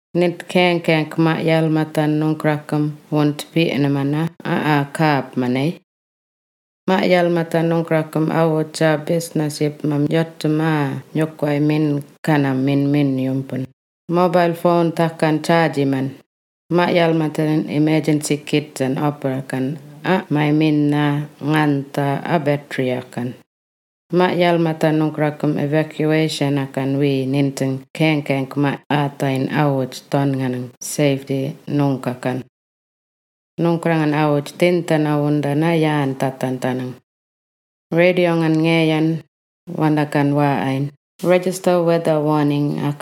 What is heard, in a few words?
wik mungkan preparing for cyclones